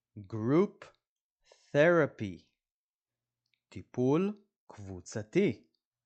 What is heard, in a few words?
"group therapy" – "טיפול קבוצתי"